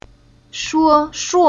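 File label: "Shuo", chinese syllable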